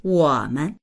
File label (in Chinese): "wo3men", voices; 我们; 私たち（聞き手を含めず）